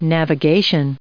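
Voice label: "navigation", us English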